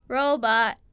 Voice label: 'ch' robot; The Interactive Alphabet